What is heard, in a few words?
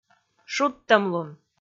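"шудтӧмлун", Komi, female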